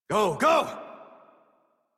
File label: Horse Sprint Adult; Warframe; Duviri